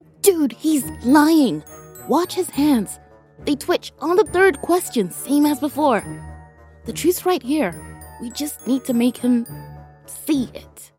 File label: Sample Suspicious